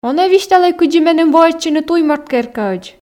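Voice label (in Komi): он-ӧ висьталӧй кыдзи меным воӧдчыны туй морт керкаӧдз, Komi, female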